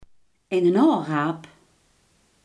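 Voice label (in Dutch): iemand die erg behaard is, Limburgse gesproken woordenboeken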